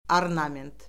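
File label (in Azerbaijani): "ornament", Azerbaijani; Orfoqrafiya Lüğəti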